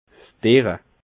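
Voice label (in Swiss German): "derre", Alsatian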